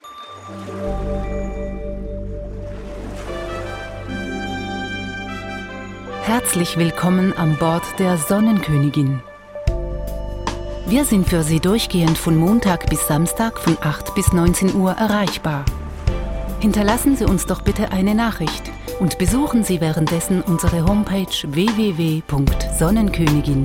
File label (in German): telefonbeantworter, deutsch